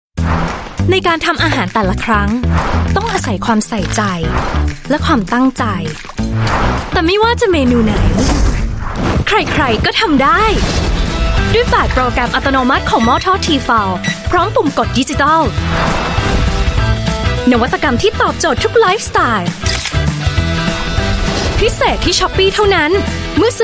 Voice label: Thai Female Narration Commercial Lively